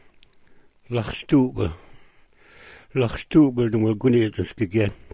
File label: "laxst'uup", smalgyax